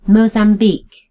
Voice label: "mozambique", en GB; gcompris